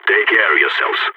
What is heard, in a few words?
radio lock n load, balkan; Counter-Strike Global Offensive